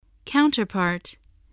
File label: "counterpart", voice spelling